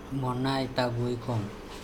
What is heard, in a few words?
"mona etaboikom", Andamanese